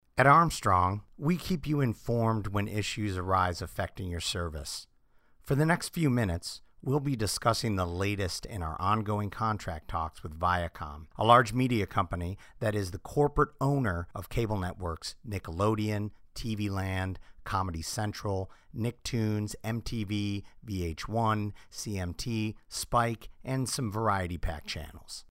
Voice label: normal serious; viacom